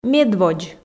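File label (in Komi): "медводз", Komi, female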